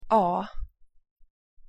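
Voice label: "a", Swedish pronunciation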